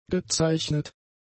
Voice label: "gezeichnet", German; adjectives

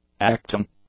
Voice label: "acting", English; adjectives

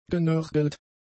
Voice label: "genörgelt", German; adjectives

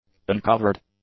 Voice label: "uncovered", English; adjectives

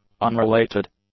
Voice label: "unrelated", english; adjectives

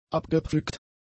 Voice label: "abgepflückt", German; adjectives

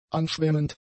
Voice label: "anschwemmend", German; adjectives

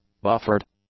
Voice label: "buffered", English; adjectives

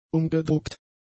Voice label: "umgedruckt", German; adjectives

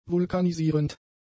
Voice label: "vulkanisierend", German; adjectives

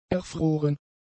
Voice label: "erfroren", German; adjectives

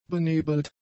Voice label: "benebelt", German; adjectives

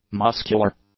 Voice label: "muscular", English; adjectives

